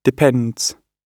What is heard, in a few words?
"depends", gb